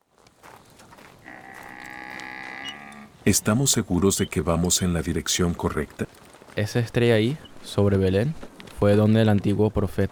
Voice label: Scene Spanish, nativity